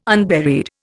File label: "unburied", Indian